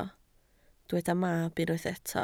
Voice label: "mab", Welsh